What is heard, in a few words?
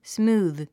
"smooth"